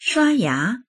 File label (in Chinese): "shua1ya2", voices